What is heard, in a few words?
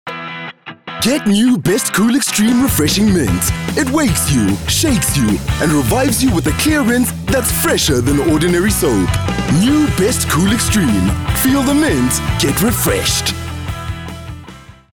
English bright with music